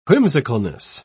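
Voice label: "whimsicalness", British English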